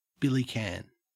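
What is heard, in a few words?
"billycan", Australian English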